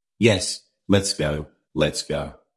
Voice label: Voice Acting, es